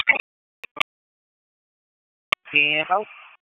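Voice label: Sheriff's Office Dispatch; p25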